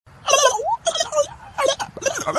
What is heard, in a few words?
gibberish